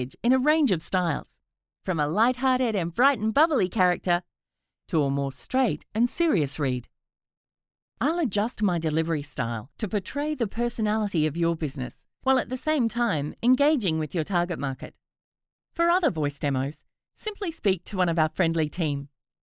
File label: FEMALE